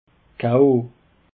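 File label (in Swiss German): "ko", Alsatian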